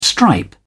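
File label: "stripe", British English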